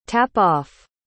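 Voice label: "tap-off", English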